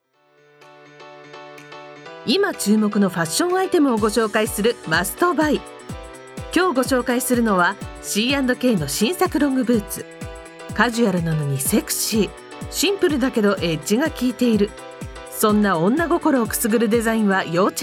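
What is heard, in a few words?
narration casual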